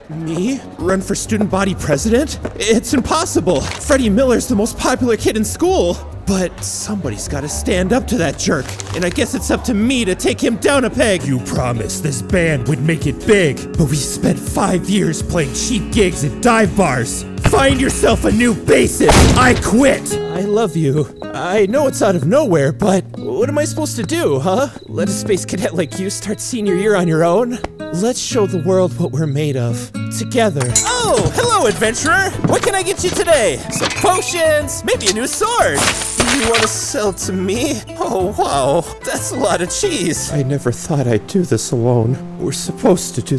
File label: Character Demo